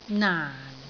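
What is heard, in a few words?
"naan4", Cantonese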